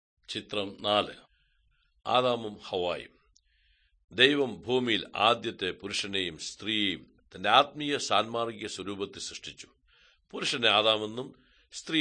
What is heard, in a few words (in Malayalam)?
good news malayalam, drama